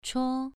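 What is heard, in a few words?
"chuo" Mandarin, second tone, pronunciation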